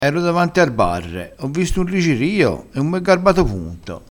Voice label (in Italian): "rigirio", vocabolario dialettale